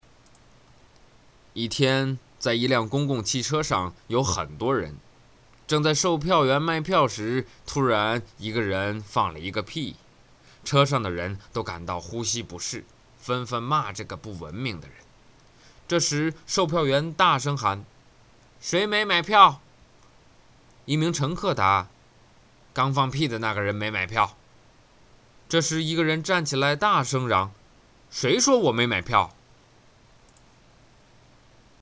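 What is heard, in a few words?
chinese mandarin male character; voice demos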